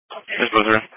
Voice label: Police Patrol 1 (Dispatch)

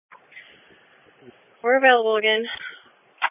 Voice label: EMS Dispatch

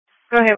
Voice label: Police Patrol 1 (Dispatch)

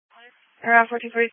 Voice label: EMS Dispatch

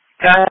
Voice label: EMS Dispatch

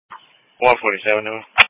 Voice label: Police Patrol 1 (Dispatch)

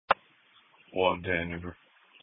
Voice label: Police Patrol 1 (Dispatch)

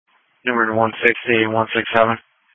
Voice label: Police Patrol 1 (Dispatch)

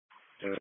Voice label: Police Patrol 1 (Dispatch)

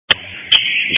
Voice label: EMS Dispatch

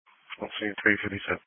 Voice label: Police Patrol 1 (Dispatch)

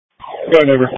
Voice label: Fire Tactical 01

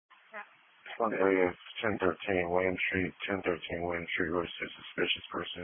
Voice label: Police Patrol 1 (Dispatch)

